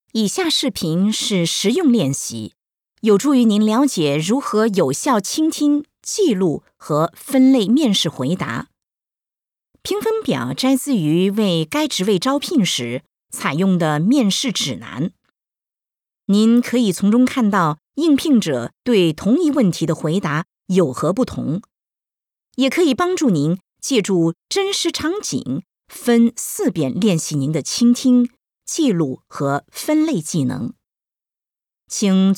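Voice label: Chinese Mandarin female Training; voice over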